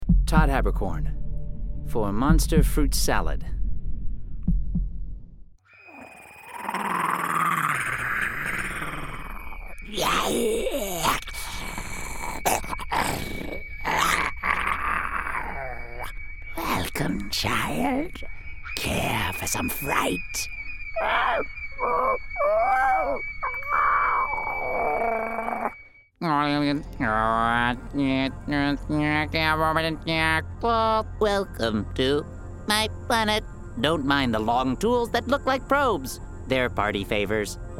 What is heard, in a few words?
animation men creature